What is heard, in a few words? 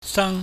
"sang", Chinese